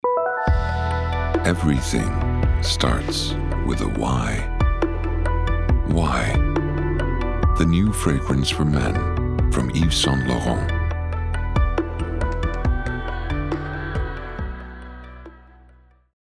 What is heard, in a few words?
Voicetape Commercial; YSL